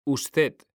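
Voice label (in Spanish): "usted", Spanish; mekanta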